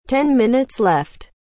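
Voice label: "10 minutes left", English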